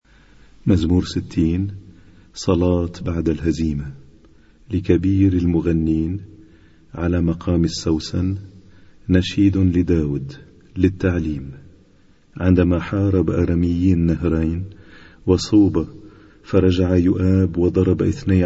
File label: Arabic Psalm Zaboor